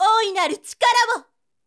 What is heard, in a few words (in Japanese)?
zyosei ooinaru